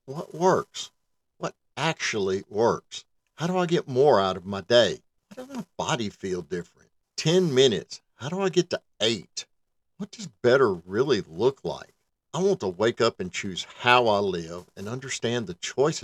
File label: Supplement Brand Internal Video Voice Samples